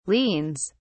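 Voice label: "liens", British English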